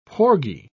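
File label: "porgy", British English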